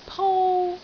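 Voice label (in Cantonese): "pou1", Cantonese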